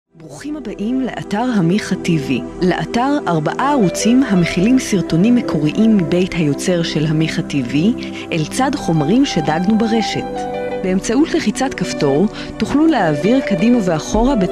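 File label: Hebrew, female, narration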